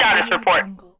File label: female military radio voice status report